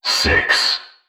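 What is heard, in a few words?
"six", synthesized voice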